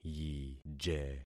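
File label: "yije", concatenated syllables; Affixing experiment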